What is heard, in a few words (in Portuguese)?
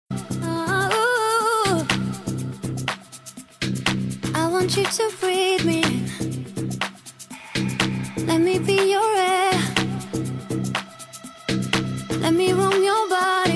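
birthday AMIGO female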